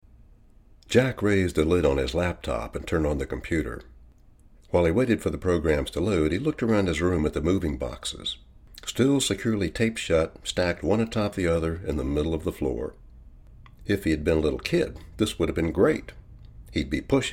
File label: VoiceOver Audio Books